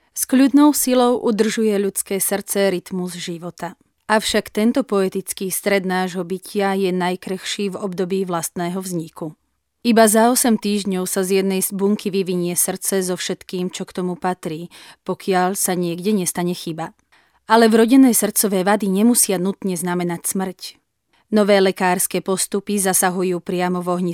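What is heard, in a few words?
Female Slovak documentary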